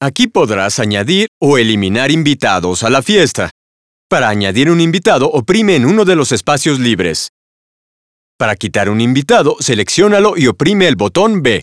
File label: Spanish, dialogue, custom setup